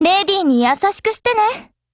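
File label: skill, Japanese; Spitfire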